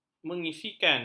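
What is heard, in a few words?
"mengisikan", Malay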